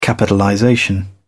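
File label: "capitalization", English, British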